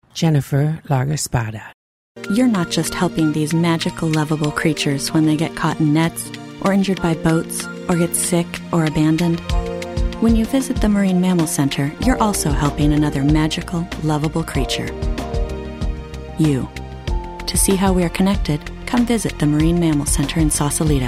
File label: female voice